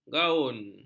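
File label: "gaun", Malay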